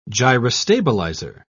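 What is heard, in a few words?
"gyrostabilizer", British English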